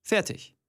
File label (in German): german voice; wyrmsun